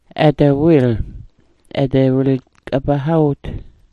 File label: "ada wil", Smalgyax